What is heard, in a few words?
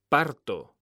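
"parto", Spanish, male; mekanta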